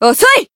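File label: finish, Japanese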